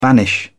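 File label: "banish", British English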